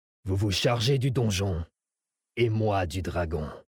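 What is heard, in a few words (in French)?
French Male Flirt; Dracthyr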